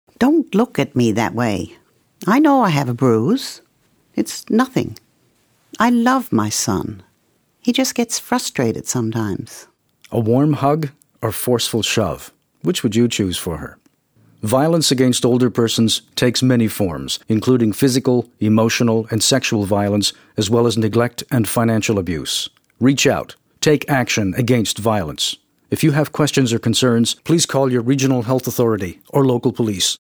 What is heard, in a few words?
radio ad; physical